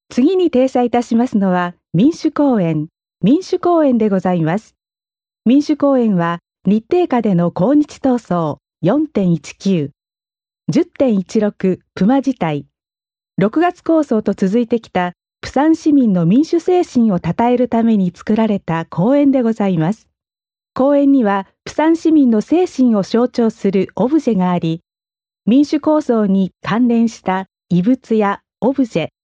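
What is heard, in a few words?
Japanese female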